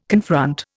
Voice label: "confront", Indian